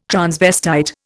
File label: "transvestite", Indian